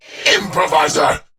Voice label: Enemy Spot, Barks Battle; Warframe; Dax; Dax Knight